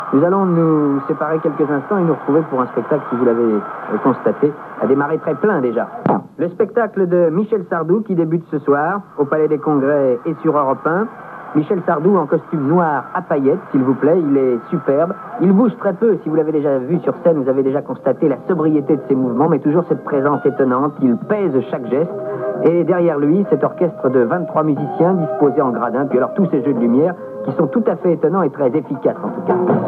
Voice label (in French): Commentaires; Europe1; A LA RADIO (ANNEES 1970)